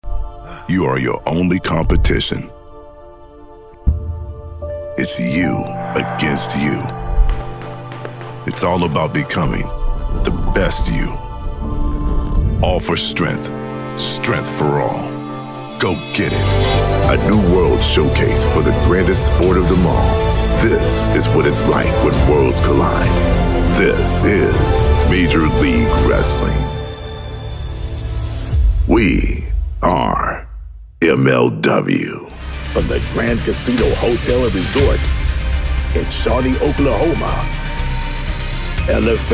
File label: Promo